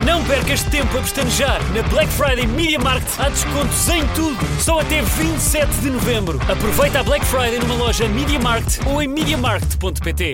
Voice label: COMMERCIALS